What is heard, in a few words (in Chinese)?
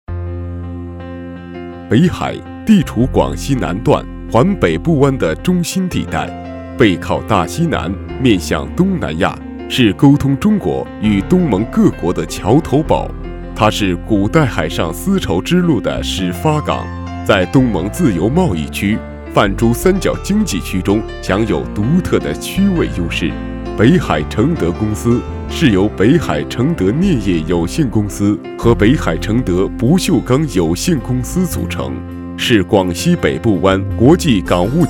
男 专题 浑厚企业宣传片混音